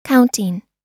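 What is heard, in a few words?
"counting", US English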